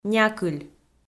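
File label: "някыль", Komi, female; kpv audio dict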